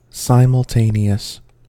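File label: "simultaneous", American English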